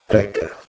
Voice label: "recce", Anglo Saxon